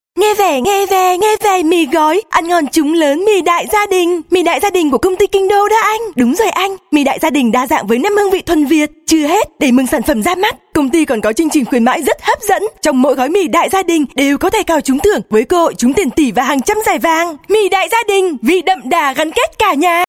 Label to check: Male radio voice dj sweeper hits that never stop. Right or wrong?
Wrong